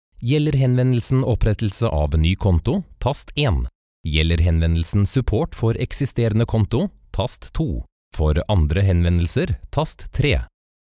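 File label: norwegian male ivr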